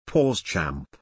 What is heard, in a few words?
"pausechamp" pronunciation